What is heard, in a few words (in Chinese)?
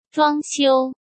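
"装修", Mandarin Chinese; hsk; chinese-flashcards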